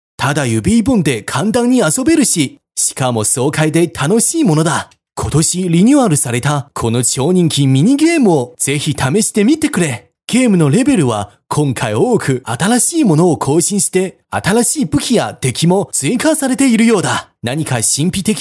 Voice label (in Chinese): 男 信息流 日语